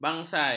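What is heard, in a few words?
"bangsai", Malay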